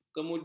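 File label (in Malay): "kemudi", Malay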